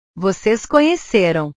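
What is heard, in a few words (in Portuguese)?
"vocês conheceram", Portuguese; portugues/verbos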